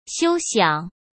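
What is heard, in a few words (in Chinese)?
"休想", Mandarin Chinese; chinese-flashcards; hsk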